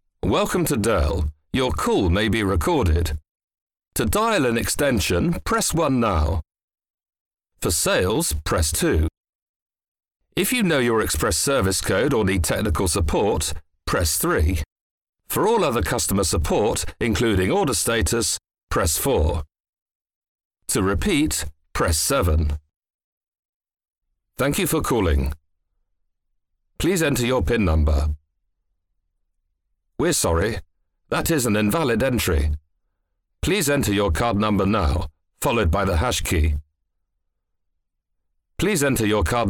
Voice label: male english ivr compilation

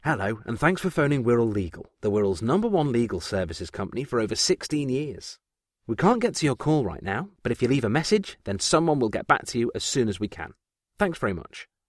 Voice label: male english telephone messaging; Devine Voices

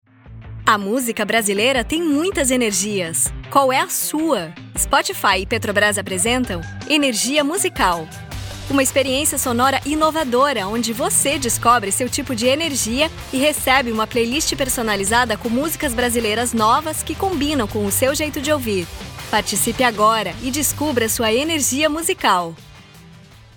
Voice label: female brazilian portuguese commercial; spotify brasil

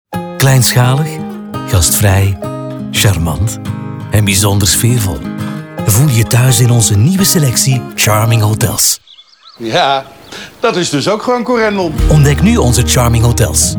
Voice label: male flemish commercial; voices; ozone; devine voices

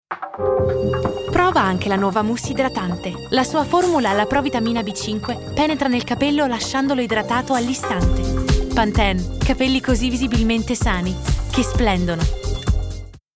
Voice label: female, Italian commercial

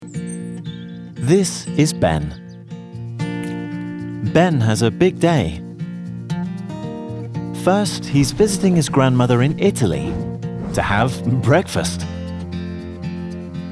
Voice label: male English explainer; hyperloop

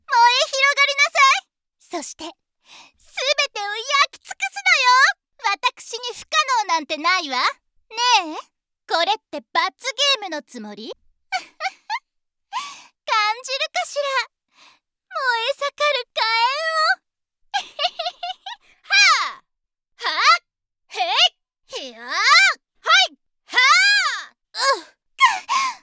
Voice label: female Japanese video game; lilith in code seigetsu; Devine Voices

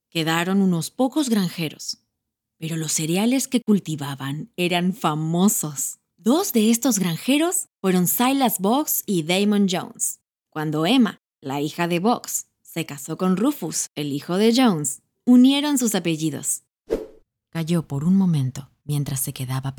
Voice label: female neutral spanish latam audiobook

